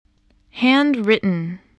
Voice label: "handwritten", English, spelling

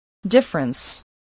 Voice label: "difference", english spelling

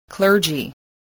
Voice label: "clergy", voice

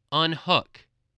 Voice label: "unhook", English spelling voice

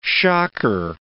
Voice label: "shocker", English

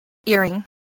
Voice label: "earring", English

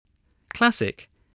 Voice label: "classic", voice

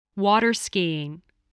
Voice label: "water-skiing", spelling

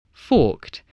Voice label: "forked", English voice, spelling

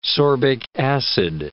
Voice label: "sorbic acid", English

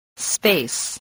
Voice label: "space", English voice

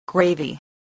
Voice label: "gravy", spelling

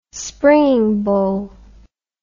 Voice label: "springing bow", English

